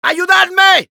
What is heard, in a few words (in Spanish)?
Demoman help me, es